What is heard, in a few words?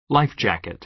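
"life jacket", American English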